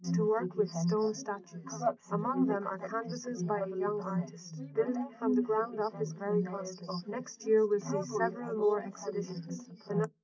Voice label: female4; SiSEC10; underdetermined

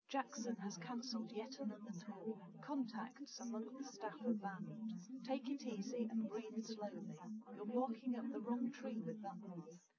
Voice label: test female liverec 130ms 5cm sim; SiSEC08; SiSEC underdetermined

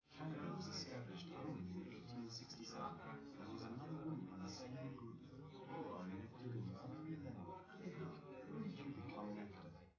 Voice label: male4 liverec 250ms 1m sim

